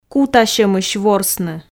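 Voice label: "кутасьомысь ворсны", Komi, female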